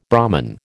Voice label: "Brahman", American